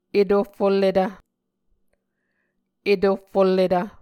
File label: "etohfulletv", muscogee